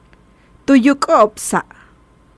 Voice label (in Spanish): Ellos bebieron atole, Maya; Tu yuk'o'ob sa'